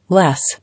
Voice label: "Less", English

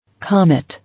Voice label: "Comet", English

